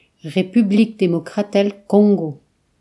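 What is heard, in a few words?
"democratic republic of congo", Breton; gcompris; geography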